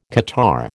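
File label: "catarrh", American English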